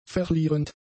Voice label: "verlierend", German; adjectives